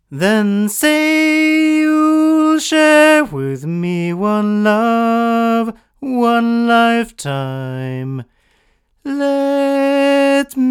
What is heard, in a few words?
ADK A51 Model S male, microphones